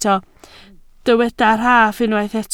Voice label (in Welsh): "rhaff" Welsh